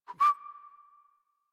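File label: horse stop walk adult, English; Warframe; Duviri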